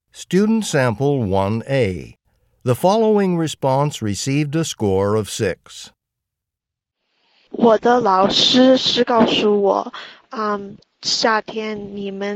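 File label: chinese conversation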